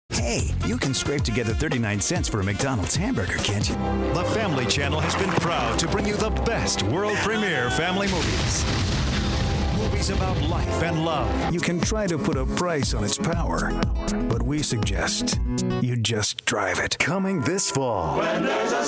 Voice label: commercial